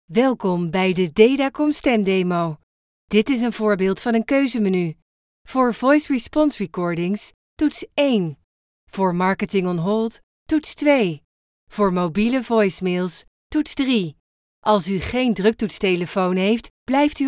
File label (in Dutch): Voice over Nederlandse vrouw